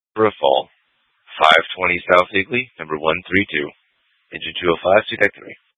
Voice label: FD Dpts VHF 156.240 Patch